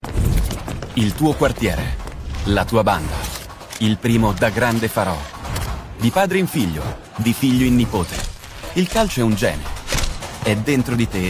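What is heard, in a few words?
Italian, male, commercial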